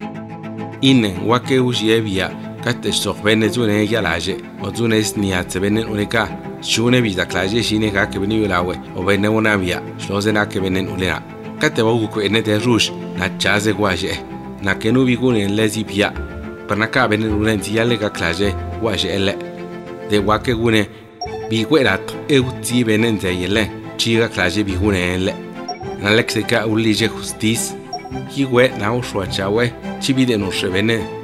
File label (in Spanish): ZAPOTECO